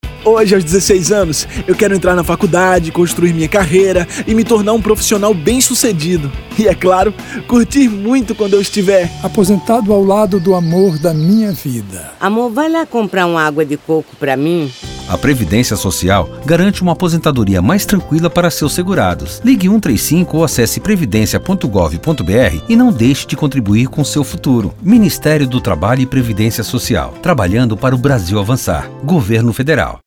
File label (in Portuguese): Spots; APOSENTADORIAS